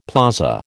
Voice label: "plaza", American